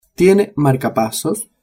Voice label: "marcapasos", spanish

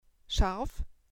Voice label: "scharf", german

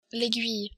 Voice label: "aiguille", French

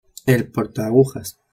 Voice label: "portaagujas", spanish

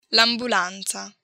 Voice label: "ambulanza", Italian

